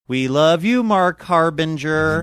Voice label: singsongy